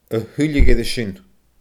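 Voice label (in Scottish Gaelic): "a thuilleadh air a sin", Scottish Gaelic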